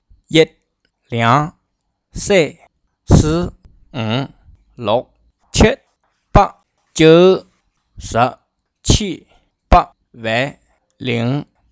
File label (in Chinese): 各种方言读1-10; 客家话